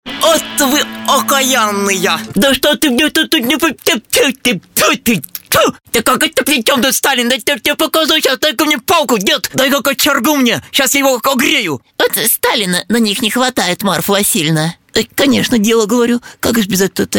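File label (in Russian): babushka, grandmother voice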